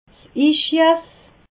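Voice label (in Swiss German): "ischias", Alsatian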